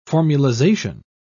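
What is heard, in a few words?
"formulization", English, British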